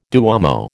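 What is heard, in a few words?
"duomo", American English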